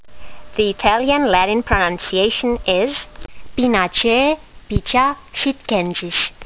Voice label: latin; Picea sitchensis